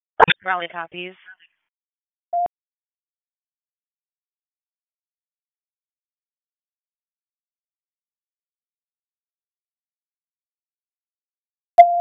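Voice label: Police Northeast District Dispatch, Raleigh; wake p25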